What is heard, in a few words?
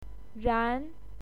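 "ran2", Mandarin Chinese pinyin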